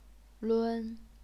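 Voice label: "lun", first tone, chinese pinyin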